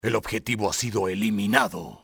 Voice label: target eliminate, spanish soldier; XBOX

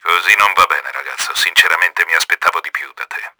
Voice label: ANNOYED, Italian; KRAMER; Battle Engine Aquila; XBOX

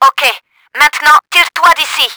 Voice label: CHAIN REACTION, french; Battle Engine Aquila; XBOX

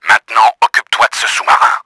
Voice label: DESTROY SUB, french; Battle Engine Aquila; XBOX

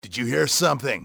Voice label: "did you hear something", english male; XBOX; enemy

